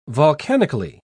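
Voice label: "volcanically", British English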